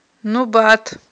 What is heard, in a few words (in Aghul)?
"нубат", aghul